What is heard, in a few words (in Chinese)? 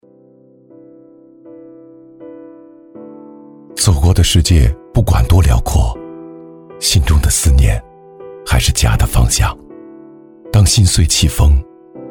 男 走心宣传 低沉走心; 大气舒缓; 质感磁性; 回家过年